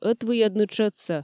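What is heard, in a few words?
"ӧтвыйӧдны тшӧтсӧ", Komi, female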